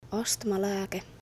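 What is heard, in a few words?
Finnish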